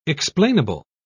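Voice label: "explainable", English, British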